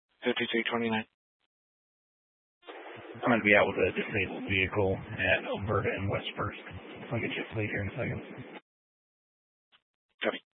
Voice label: Sheriff Dispatch, scanner; Mesa County

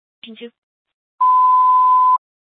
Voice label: Fire Department Dispatch, scanner

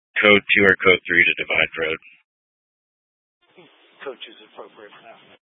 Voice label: Fire Department Dispatch; Grand Junction

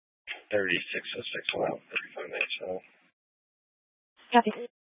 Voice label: Police Dispatch

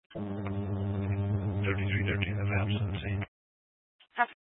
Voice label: Police Department Dispatch; Grand Junction

